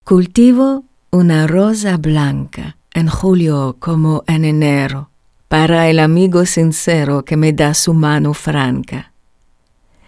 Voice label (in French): Portugais